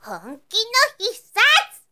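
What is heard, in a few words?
"honkinohissatu", Japanese, girl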